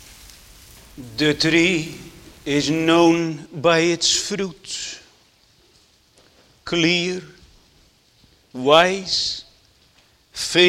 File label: Sermon